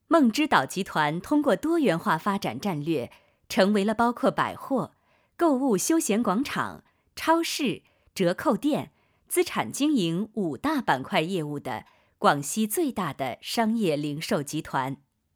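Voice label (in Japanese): 企業 pv, 北京語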